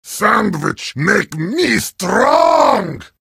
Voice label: heavy sandwich taunt, vo

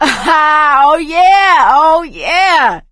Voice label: hurrah, survivor voice

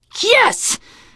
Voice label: nice job, teengirl; survivor voice

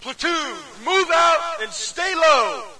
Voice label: us start round; player; Source Engine; dod

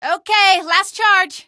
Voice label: female; hl2; streetwar; tunnel; Source engine

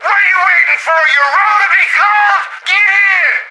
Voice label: radio arrived, plane pilot; Left 4 Dead 2; Source Engine; video games; npc

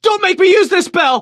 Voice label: radio initial broadcast; Left 4 Dead 2; Source Engine; video games; npc; churchguy

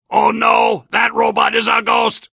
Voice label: soldier robot see ghost, vo

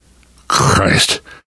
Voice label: reaction negative, survivor voice

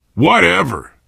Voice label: vampires, player survivor voice biker

